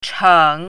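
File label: "cheng3", Chinese pinyin, third tone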